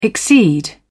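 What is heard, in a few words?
"exceed", British English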